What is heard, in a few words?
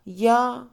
"aquí", Mixe, Mujer; INALI